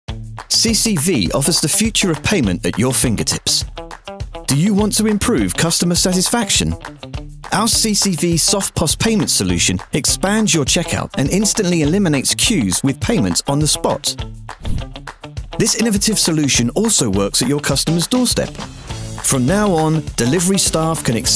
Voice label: UK